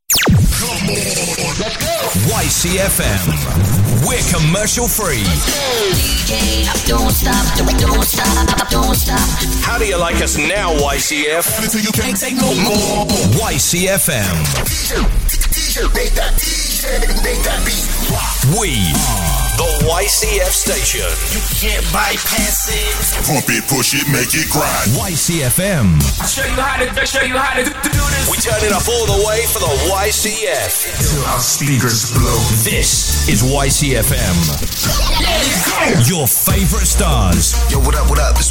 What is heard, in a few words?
Imaging; YCFM